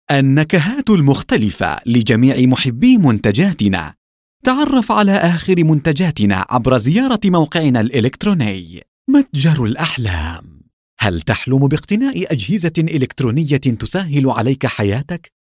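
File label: AR General, Arabic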